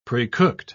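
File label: "precooked", British English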